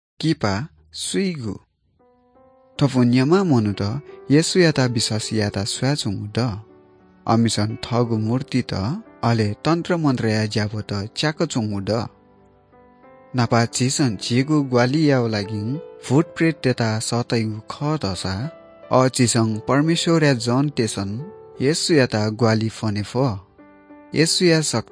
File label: good news newari bhaktapur